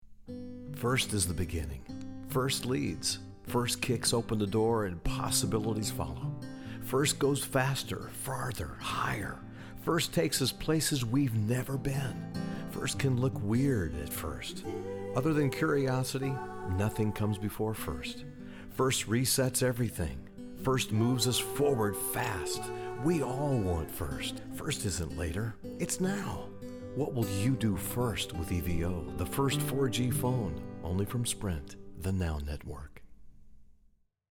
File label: NATURAL; Sprint